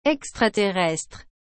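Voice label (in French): "extraterrestre", French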